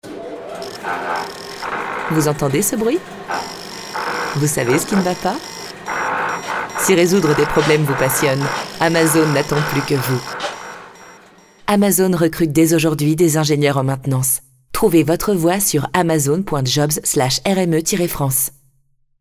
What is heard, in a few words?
French; Amazon FR